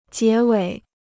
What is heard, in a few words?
"结尾", Chinese